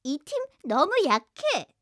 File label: ui yell